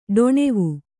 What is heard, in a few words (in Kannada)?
"ಡೊಣೆವು", Kannada